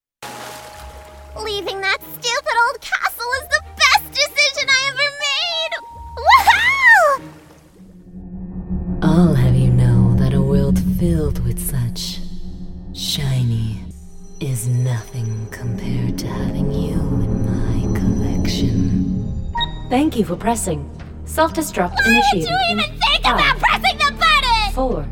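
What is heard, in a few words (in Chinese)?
外籍女声 角色扮演 美式英语; 外籍女声0.0018-iceh角色扮演02-美式英语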